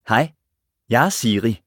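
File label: Danish, Denmark; iOS Sounds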